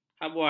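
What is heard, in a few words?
"habuan", Malay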